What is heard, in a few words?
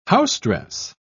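"housedress", British English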